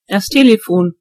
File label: phone, de; gcompris